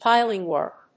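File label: "piling work", English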